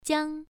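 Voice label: "jiang", Mandarin Chinese, first tone, pronunciation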